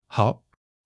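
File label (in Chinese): "好", Chinese; HSK1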